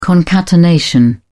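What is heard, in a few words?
"concatenation", English, British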